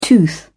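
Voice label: "tooth", British English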